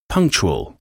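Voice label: "punctual", English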